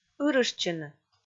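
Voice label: "ырыштчыны", Komi, female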